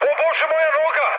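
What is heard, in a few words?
Serbian damaged pilot mood high, speech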